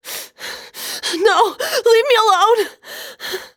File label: Panic; zps